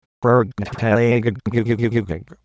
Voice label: cyborg eurgh; svencoop; outerspace